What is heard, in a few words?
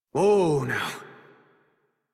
Horse Stop Walk Adult; Warframe; Duviri